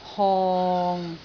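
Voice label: "hong6", Cantonese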